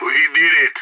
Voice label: radio bot end solid, player vo; idf

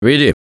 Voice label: Lead On, russian; Gambler

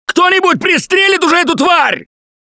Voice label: grabbed by charger, russian, Survivor Voice; Gambler

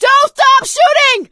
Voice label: battle cry tank, survivor voice; Left 4 Dead 2; producer

